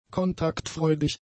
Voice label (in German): "kontaktfreudig", German; adjectives